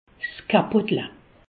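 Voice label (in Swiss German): "kapotel", Alsatian; medecine; préservatif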